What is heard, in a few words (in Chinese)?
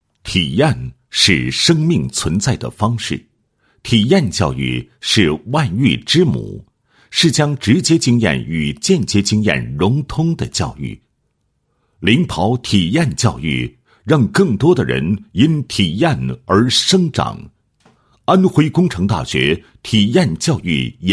男 学校 体验教育研究中心介绍; 安徽工程大学